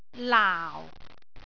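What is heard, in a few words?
"laau4", Cantonese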